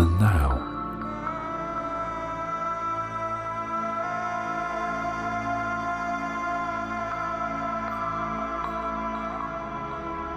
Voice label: Mindful Meditation, guided